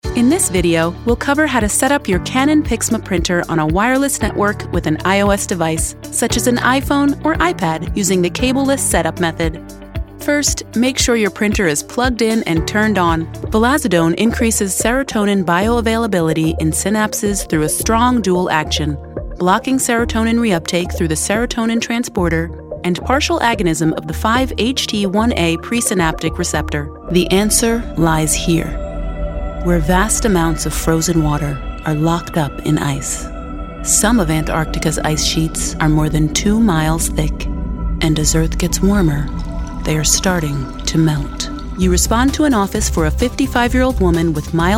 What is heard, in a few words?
30s Female Narration; African-American